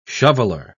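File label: "shoveller", British English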